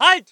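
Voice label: voice german infantry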